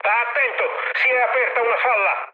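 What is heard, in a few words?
Italian damaged water mood med, speech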